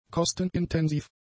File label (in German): "kostenintensiv", German; adjectives